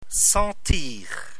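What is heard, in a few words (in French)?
"sentir" French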